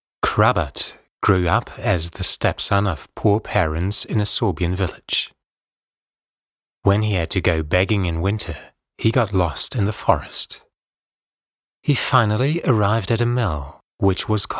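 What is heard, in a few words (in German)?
British English, Schauspiel, Dynamisch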